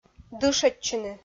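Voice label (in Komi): "дышӧдчыны", Komi, female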